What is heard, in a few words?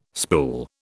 "spool", British